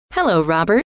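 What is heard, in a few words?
hello, female; trainer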